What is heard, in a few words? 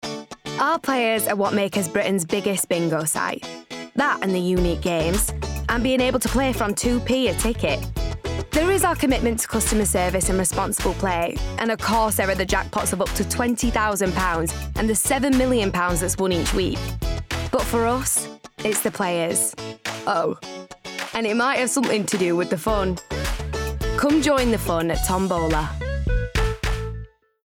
COMMERCIALS; Tombola; Northern